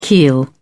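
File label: "keel", British English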